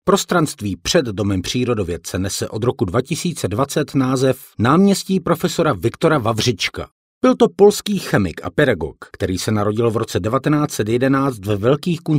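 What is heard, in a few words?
Czech, audio guide; GoLocalise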